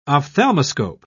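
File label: "ophthalmoscope", British English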